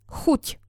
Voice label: "chut", slovencina